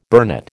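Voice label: "burnet", American English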